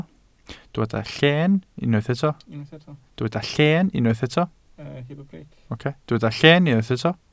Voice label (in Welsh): "lleen" Welsh